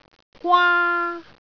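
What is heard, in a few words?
"gwaa1", Cantonese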